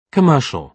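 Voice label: "commercial", British English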